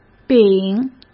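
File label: "丙", Mandarin Chinese; chinese flashcards; hsk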